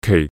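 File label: "kei4", Cantonese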